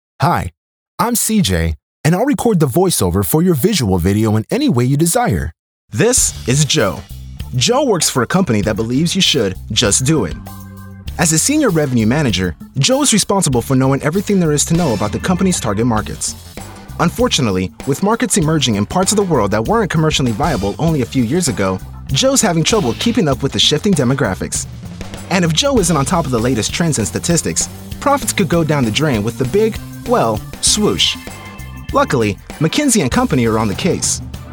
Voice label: EN AE voicesample